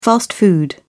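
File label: "fast food", British English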